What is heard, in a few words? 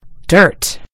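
"dirt", American English; gcompris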